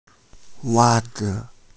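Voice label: "wa4zi5", Mandarin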